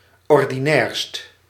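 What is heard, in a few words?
"ordinairst", Dutch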